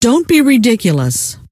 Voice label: dont be ridiculous, scientist female

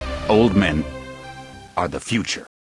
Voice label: old men

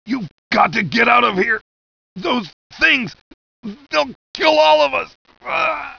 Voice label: dead guy; hgrunt; bigsci

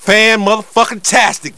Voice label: Cheer; Lamar Davis

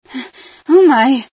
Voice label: female sci fear

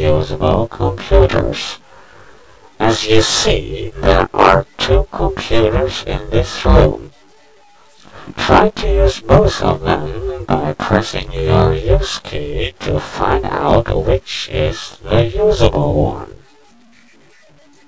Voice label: holo less, tutorial; blackops

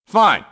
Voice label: guard duty, grunt; nimble grunt

